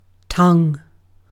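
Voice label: "tongue", British English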